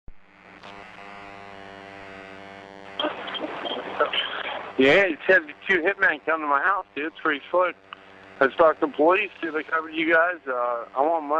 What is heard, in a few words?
conversation; Phone Conversation 1